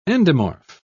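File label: "endomorph", British English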